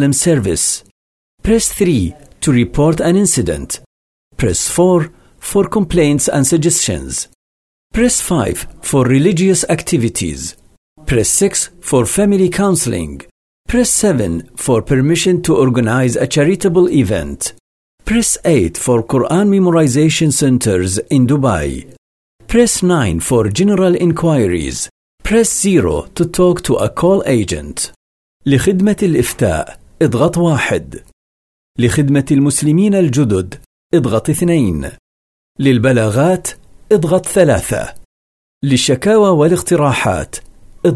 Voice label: male English and Arabic; khaleeji emirati voice recording